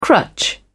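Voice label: "crutch", British English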